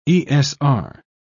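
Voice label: "esr", British English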